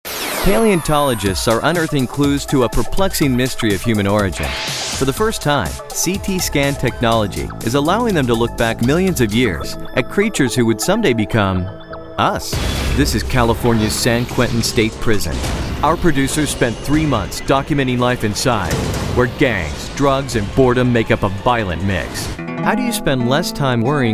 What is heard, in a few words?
Rockin Narration